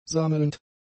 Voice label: "sammelnd", german; adjectives